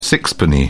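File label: "sixpenny", British English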